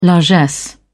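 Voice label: "largesse", British English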